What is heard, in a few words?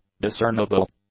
"discernible", English; adjectives